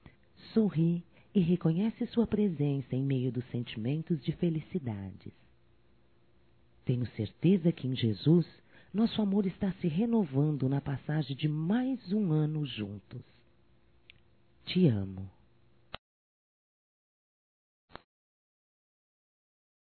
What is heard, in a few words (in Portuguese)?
Ano Novo Romântico Feminino; Natal & Ano Novo; Telemensagens 2017